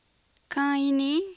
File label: "caan yi'ni'", copalatriqui